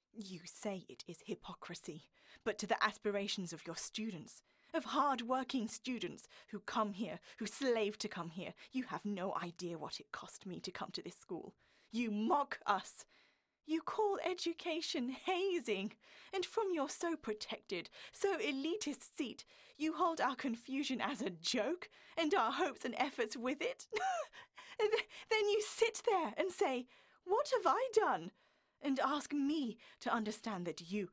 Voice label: natural accent